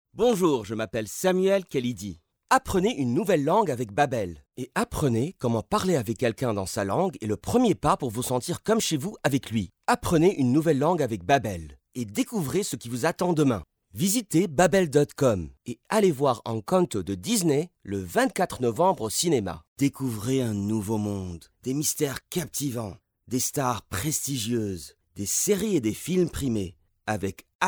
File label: languages men french